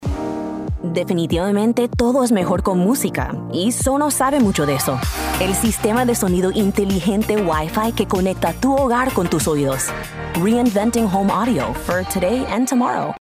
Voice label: Spanglish Commercial Cool Bilingual Tech Confident; SONOS